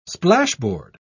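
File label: "splashboard", English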